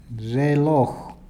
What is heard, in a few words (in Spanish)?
reloj, Peru Cuzco